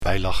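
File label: "wij lachen", Dutch; wylachen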